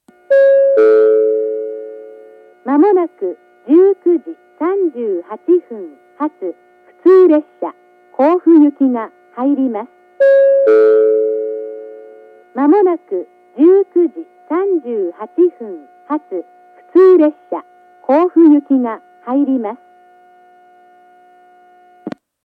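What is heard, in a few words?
platform 2 approaching advance notice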